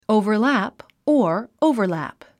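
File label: "overlap", English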